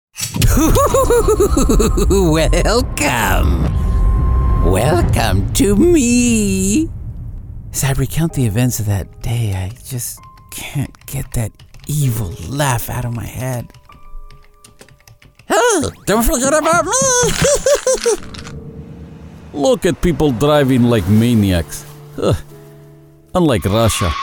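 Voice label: ESLA AccEN, Latin American Spanish accented English; GoLocalise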